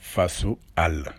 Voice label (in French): Wolof Zebre